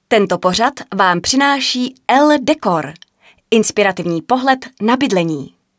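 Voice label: female Czech commercial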